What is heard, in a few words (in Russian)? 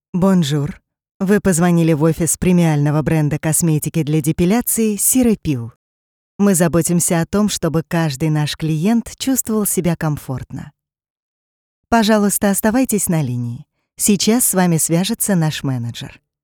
avtootvetchik